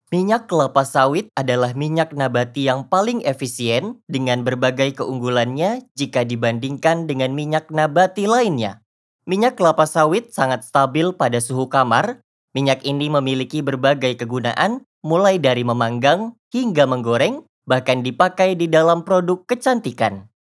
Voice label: FORMAL INDO